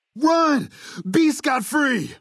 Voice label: male adult default; Fnv

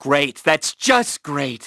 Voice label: Male Great

